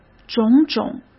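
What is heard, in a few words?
"种种", Mandarin Chinese; chinese flashcards; hsk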